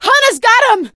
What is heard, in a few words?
hunter pounced, survivor voice; Left 4 Dead 2; Source Engine; video games; producer